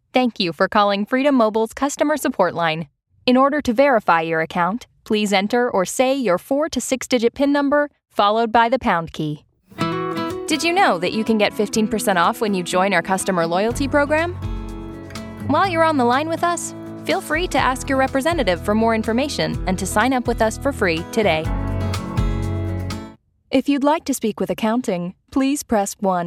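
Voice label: English Canadian interactive voice response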